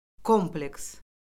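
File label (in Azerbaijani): "kompleks", Azerbaijani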